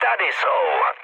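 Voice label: enemy detected, Czech, mood med; War Thunder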